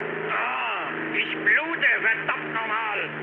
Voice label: damaged pilot, mood med, speech ge; War Thunder

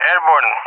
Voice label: "airborne", ENG; Messages; DCS; Allied Flight

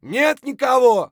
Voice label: clear, voice; Escape from Tarkov; scav2

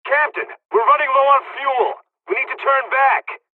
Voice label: dialogs turkish; midway; War Thunder

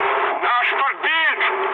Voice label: aircraft destroyed, mood med, speech ru

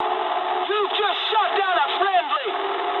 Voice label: eng player killed ally mood low, speech eng

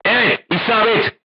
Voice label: voice message direct hit, crew tanks turkish

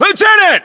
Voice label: US rank lieutenant, battlechatter, english

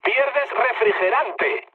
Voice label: damaged water, mood low, speech; War Thunder

